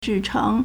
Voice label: "zhi4 cheng2", Chinese